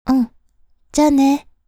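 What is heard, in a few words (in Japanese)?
うん じゃあねー, 宮崎弁; Windows向けシステムボイス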